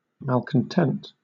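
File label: "malcontent", British English; pronunciations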